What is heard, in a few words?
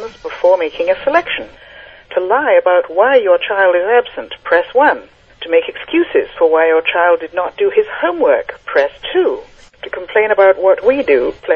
Australian School Answering Machine